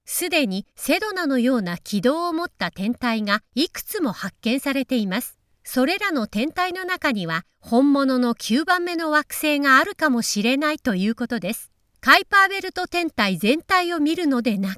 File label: Narrational Documentaries